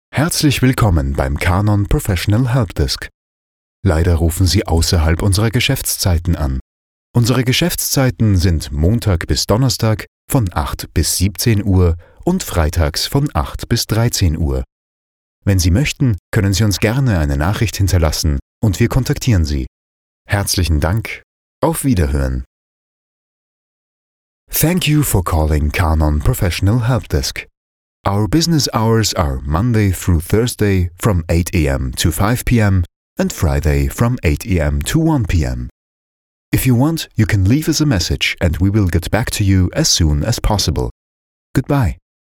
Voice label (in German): Anrufbeantworter; Canon